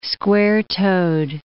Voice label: "square-toed", English